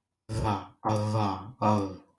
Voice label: Voiced bidental fricative